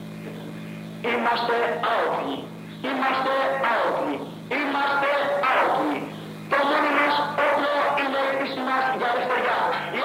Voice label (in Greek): ραδιοφωνικό μήνυμα προς τους στρατιώτες